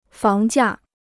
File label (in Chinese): "房价", Chinese